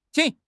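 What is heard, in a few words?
"qing3", Mandarin Chinese; HSK1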